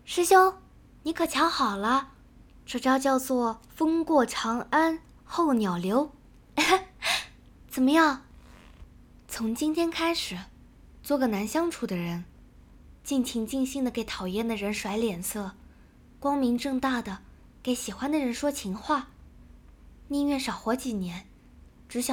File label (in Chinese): 少女